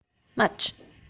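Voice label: "match", English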